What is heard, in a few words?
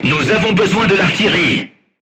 voice message art command, crew tanks french